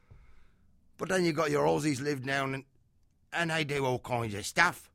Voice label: British